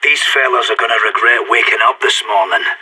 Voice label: radio lock n load, player vo sas